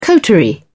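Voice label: "coterie", British English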